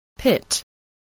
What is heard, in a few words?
"pit", voice, English